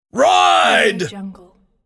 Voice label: military voice ride